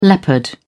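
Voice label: "leopard", British English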